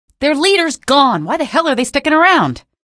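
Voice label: citizen alert soldier, female; vo; npc; source engine; episodic; episode 1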